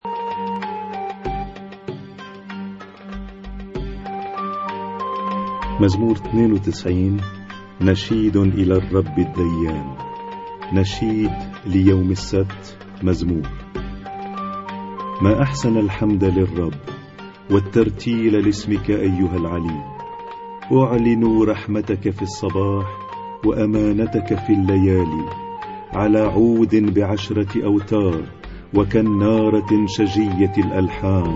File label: Arabic Psalm Zaboor